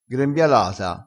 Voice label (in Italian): "grembialata", crespinese